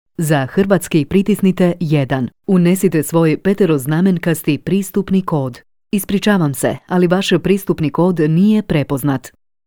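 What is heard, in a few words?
IVR Croatian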